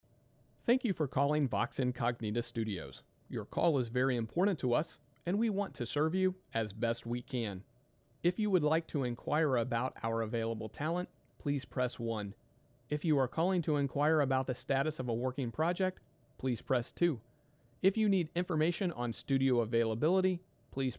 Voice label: IVR